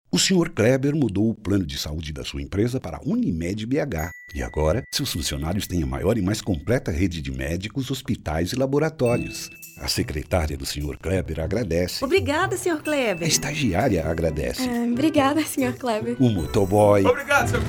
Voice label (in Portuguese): Agradecimento Spot; Unimed-BH; Vendas